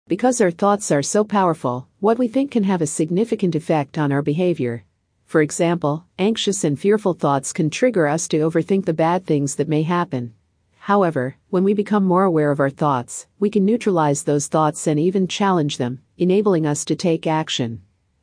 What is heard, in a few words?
Female; Taking Action